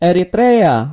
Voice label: "eritrea", id; gcompris-data; geography